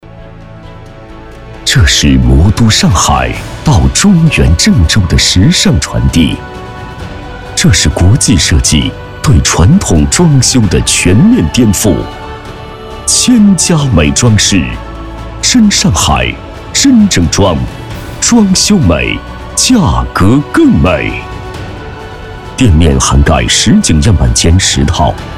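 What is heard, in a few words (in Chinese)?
专题宣传片; 千家美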